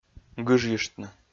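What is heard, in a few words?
"гыжйыштны", Komi, male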